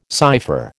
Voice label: "cypher", American

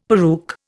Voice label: "peruke", Indian; Dictionary

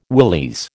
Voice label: "willies", American

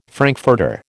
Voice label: "frankfurter", American

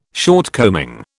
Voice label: "shortcoming", British

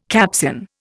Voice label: "Capsian", Indian

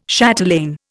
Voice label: "chatelaine", Indian

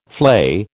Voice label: "flay", American

